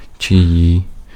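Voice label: Ukrainian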